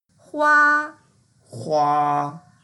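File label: "hua1" Mandarin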